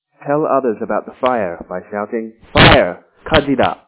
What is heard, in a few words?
english